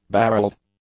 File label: "barreled", English; adjectives